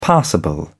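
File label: "passable", British English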